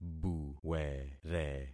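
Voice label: "buwere", concatenated syllables; Affixing experiment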